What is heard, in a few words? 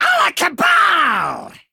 Male Imp Attack